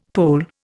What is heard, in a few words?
"Pole", Indian; Dictionary